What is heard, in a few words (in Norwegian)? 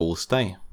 "Kos deg", Norwegian